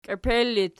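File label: "kiepel" locative, Archi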